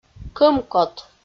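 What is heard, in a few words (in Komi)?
"кӧмкот", Komi, female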